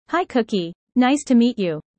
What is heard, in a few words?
user fast; Animal friends